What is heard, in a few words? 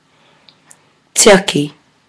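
"tiaki", Māori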